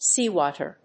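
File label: "seawater", English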